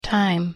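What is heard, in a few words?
pronunciation "time", English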